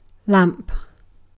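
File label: "lamp", British English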